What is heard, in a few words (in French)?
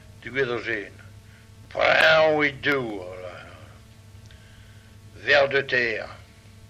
f emphatique, vibr uvul; Poullaouen; pRReonig douar